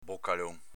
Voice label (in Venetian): "bocalon", Veneto